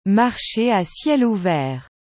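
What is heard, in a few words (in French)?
"marche a ciel ouvert", French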